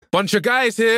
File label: voice english; phoenix; valorant